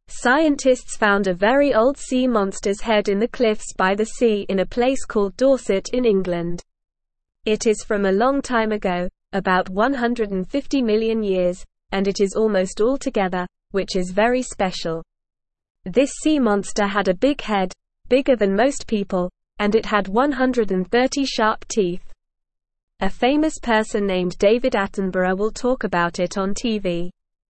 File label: English Lower Intermediate NORMAL Reading; A Big Sea Animal with Sharp Teeth; English Newsroom